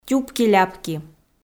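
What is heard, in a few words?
"тюпки-ляпки", Komi, female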